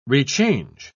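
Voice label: "rechange", British English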